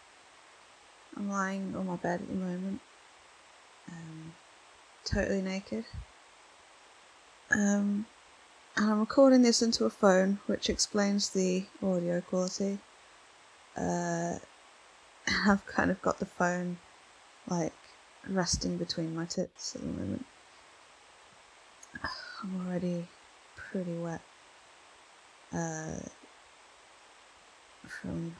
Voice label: Good old ramblefap, British, some wet noises, pining